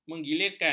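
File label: "menggilirkan", Malay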